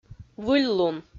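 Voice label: "выльлун", Komi, female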